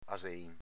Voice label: "asein", Veneto